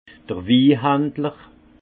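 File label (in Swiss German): "wihandler", Alsace Haut Rhin; vin; négociant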